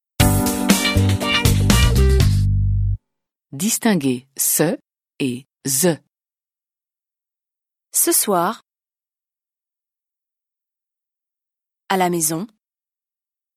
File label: French